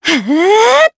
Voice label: Vox Casting, jp; Yanne; kingsraid